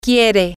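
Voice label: she wants, Spanish, female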